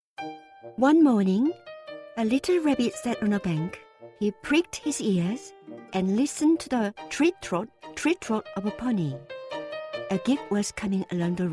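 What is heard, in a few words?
KO AccEN, Korean accented English; GoLocalise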